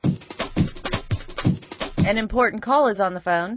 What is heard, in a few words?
Fem An Important Call is On The Phone Ringtone, Drums